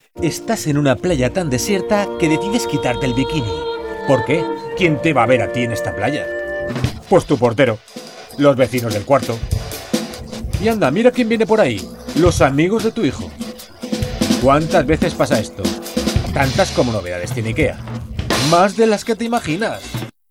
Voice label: animation, Español, Hombre; Animación; Spain